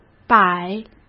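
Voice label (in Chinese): "摆" Mandarin Chinese; hsk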